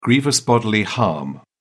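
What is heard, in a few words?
"grievous bodily harm", British English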